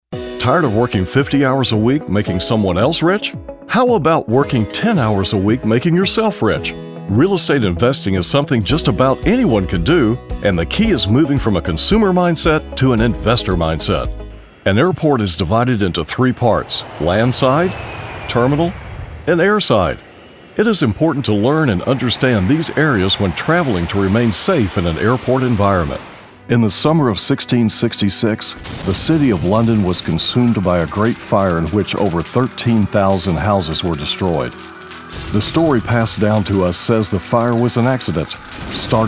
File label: Narration